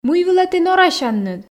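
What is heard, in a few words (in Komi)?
мый вылӧ ті норасянныд, Komi, female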